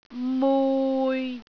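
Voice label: "mui6", Cantonese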